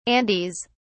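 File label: "andes", English, British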